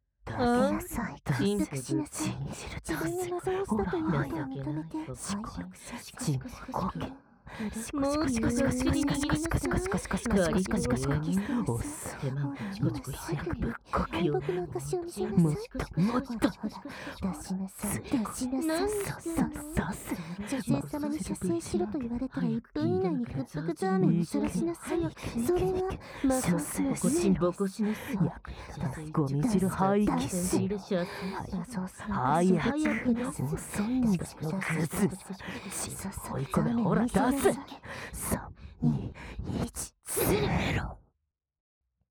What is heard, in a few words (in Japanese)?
即ヌキボイス; Finカーラ